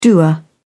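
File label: "doer", British English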